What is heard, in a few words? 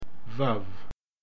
"vav", Hebrew